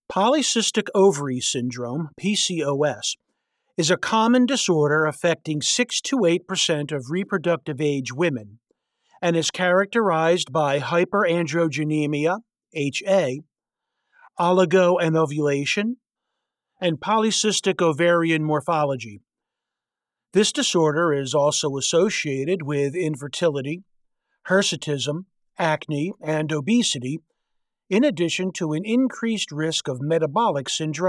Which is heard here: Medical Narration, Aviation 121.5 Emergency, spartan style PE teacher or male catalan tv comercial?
Medical Narration